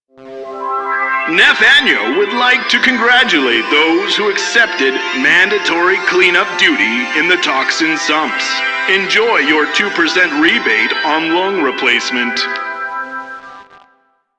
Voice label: PA system; en; Dialog; Warframe; Solaris Venus